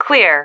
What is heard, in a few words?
"clear", female